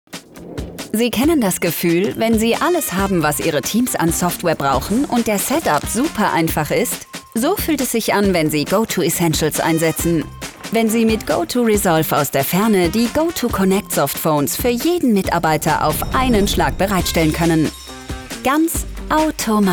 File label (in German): Werbung Online Spot cool souveraen; GoTo Essential